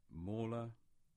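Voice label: "Mawla", English; place-names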